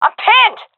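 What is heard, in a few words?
pinned, female; vo; Counter Strike Model Pack; CS:GO; seal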